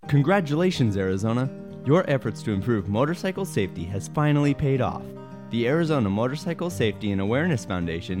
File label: Scholarship RADIO PSA; AMSAF